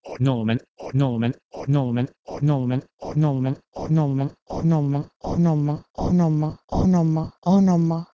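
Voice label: Proto-Indo-European h3neh3men to Modern Greek onoma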